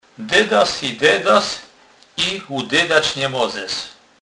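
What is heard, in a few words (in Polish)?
"dedac", Kurpie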